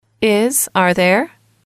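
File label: is are there, English, female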